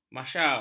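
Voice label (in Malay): "mahsyar", Malay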